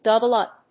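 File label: "double up", English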